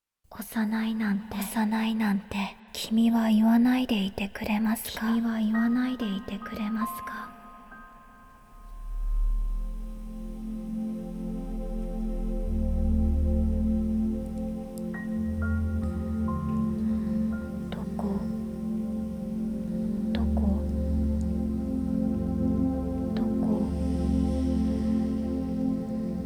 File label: monologue